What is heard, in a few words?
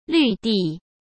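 "绿地", Mandarin Chinese; hsk; chinese-flashcards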